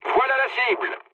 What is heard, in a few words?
near waypoint mood low, speech fr; War Thunder